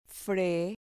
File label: "fre", Spanish